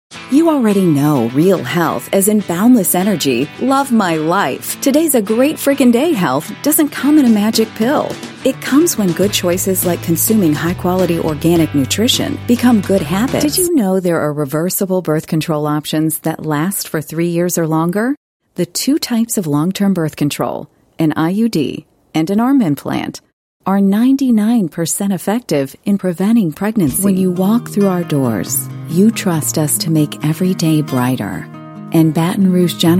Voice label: US English Medical